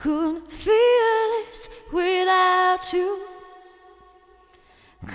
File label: Mixed Berry Jam Voice, 70s Analog Funk